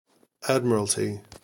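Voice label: "admiralty", US English; pronunciations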